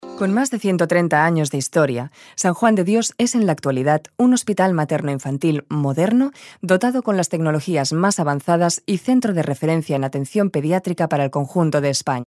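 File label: Spanish informative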